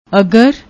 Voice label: "agar", Punjabi; ਅਗਰ